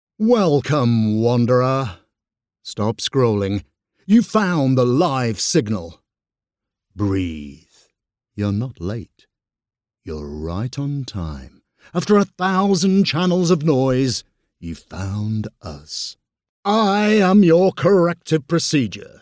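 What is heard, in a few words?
Bold Promo Style, British Male Voice Over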